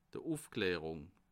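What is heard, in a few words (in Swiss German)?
"ufklarung", Bas Rhin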